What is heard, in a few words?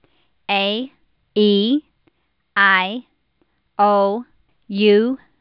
female a